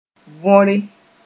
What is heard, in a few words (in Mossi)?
"võre", moore